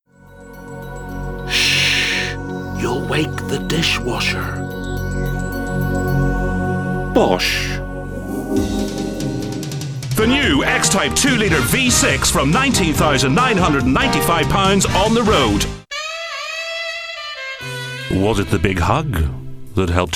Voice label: Commercials